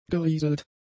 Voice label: "gerieselt", German; adjectives

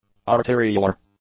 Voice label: "arteriolar", English; adjectives

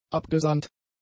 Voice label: "abgesahnt", German; adjectives

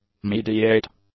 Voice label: "mediate", English; adjectives

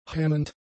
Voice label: "hemmend", German; adjectives

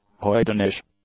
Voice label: "hoydenish", English; adjectives

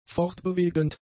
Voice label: "fortbewegend", German; adjectives

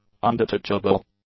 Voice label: "undetachable", english; adjectives

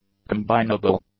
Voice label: "combinable", English; adjectives

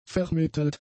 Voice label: "vermittelt", German; adjectives

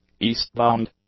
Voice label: "eastbound", English; adjectives

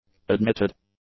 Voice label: "admitted", English; adjectives

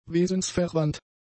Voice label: "wesensverwandt", German; adjectives

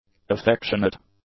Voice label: "affectionate", English; adjectives

